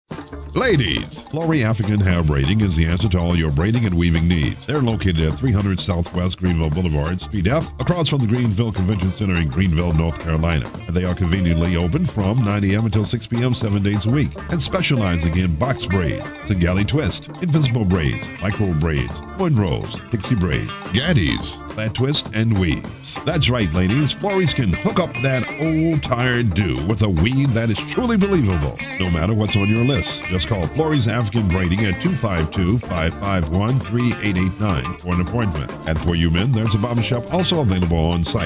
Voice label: commercial